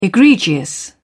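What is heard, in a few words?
"egregious", British English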